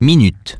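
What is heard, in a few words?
"minutes", English; iOS 4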